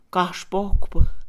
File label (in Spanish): maldecir, Mixe, Mujer; Religión; INALI